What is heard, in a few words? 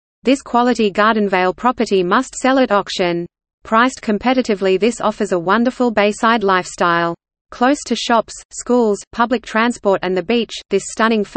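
Australian presenter, real estate videos; My Real Estate Videos; Melbourne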